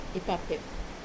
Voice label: "pape", wash, Wamesa

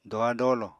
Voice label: "doha-dolo", Apatani

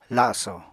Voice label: "laso", Apatani

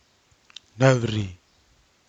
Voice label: "novri", Nafe (Kwamera)

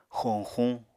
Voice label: "xoñxuñ", Apatani

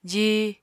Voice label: "jí", Apatani

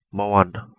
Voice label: Kapingamarangi; ocean deep

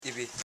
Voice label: "i bi", Koro house parts

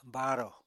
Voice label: "baarò", Apatani

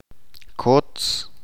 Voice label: "herencia", Chalchiteko

